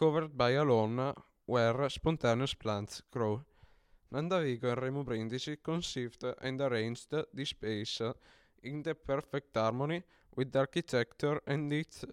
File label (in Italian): outdoor inglese; discovercomacchio